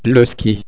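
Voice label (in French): "ski", French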